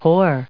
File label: "whore", us